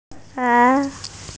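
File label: "shan1yang2", Mandarin Chinese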